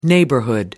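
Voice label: "neighborhood", British English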